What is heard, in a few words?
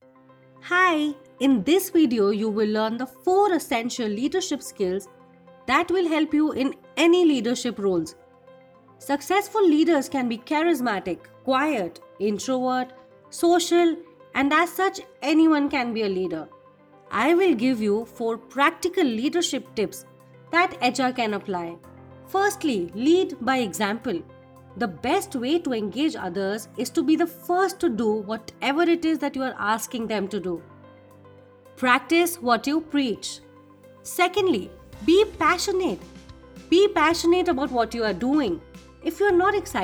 Eng E Learning